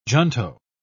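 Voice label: "junto", British English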